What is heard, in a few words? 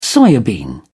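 "soya bean", English, British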